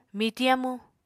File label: "mitiñ amu", Apatani